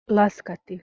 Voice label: "laskati" Bosnian Croatian Serbian